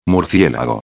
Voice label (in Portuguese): "murcielago", espanhol; palavra do dia